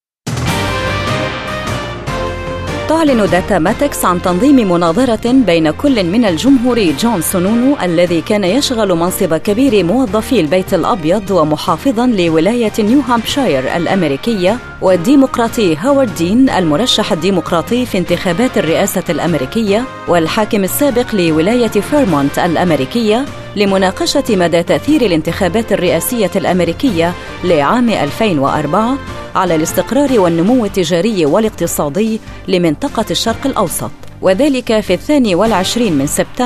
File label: debate arabic